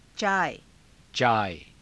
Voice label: "caaya", hindi; चाय; [女]お茶，紅茶

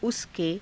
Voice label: "usa ke", Hindi; उसके; あの人の

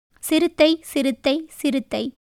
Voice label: Tamil

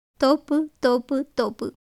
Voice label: Tamil

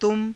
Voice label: "tuma", hindi

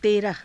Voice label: "teraha", hindi; तेरह

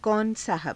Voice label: kauna saahaba, Hindi

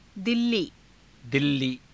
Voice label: "dillii", Hindi

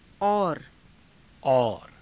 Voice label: "aura", Hindi

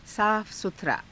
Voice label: "saafa sutharaa", hindi

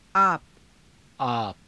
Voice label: "आप", hindi; このかた; express hindi (hakusuisha); [代]このかた

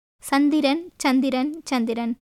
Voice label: Tamil

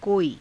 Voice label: "koii", Hindi

